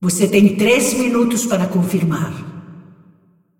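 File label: announcer female, pt br; Clash; tournaments lockin 3min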